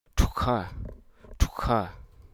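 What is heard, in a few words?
Hruso Aka; comb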